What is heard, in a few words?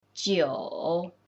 "9", mandarin